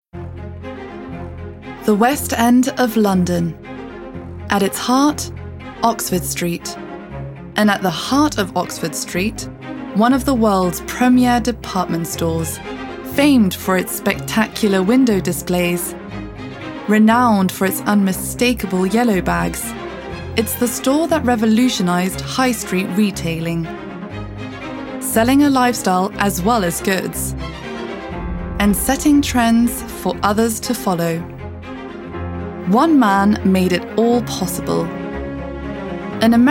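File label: British English, documentary; GoLocalise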